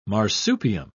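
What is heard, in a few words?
"marsupium", British English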